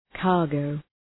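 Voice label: "cargo", English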